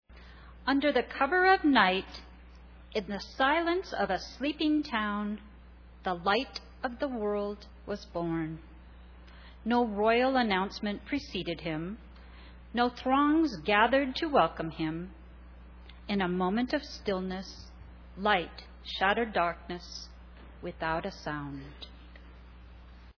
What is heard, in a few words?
Narration; Cantata